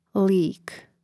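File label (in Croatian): "lik", Croatian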